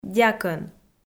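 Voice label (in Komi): "дякӧн", Komi, female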